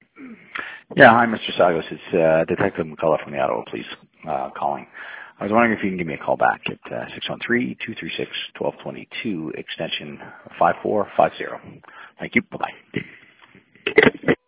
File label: voicemail